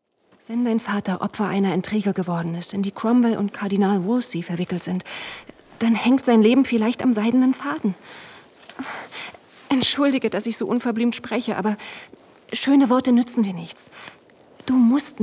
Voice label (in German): Hörspiel